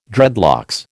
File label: "dreadlocks", American